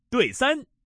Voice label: pair 3, male